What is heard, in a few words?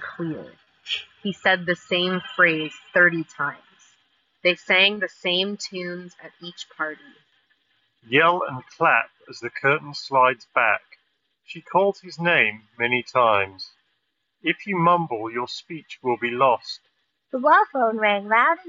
Street microphone sample; Anker Soundcore Space A40